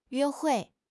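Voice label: "yue1 hui4", Mandarin Chinese; HSK; HSK4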